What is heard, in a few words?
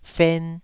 "fen2", Taiwanese